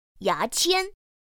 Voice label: "yáqiān", pinyin; pinyin2; pinyinji